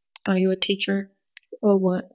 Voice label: Voice Acting es F